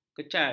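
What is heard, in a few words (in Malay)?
"kecai", Malay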